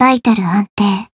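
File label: healed, Japanese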